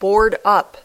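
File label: "board up", English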